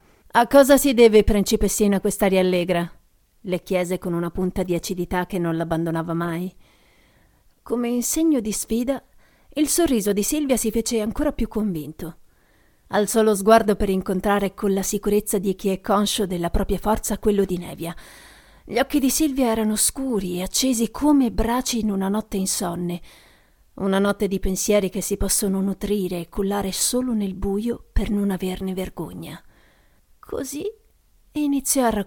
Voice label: Italian Voice Over Theatrical Narration